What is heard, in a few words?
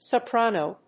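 "soprano", English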